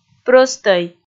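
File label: "прӧстӧй", Komi, female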